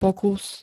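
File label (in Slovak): "pokus", Slovak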